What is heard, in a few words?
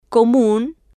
"comun", Spanish